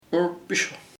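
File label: true verdadero, Ishir Chamacoco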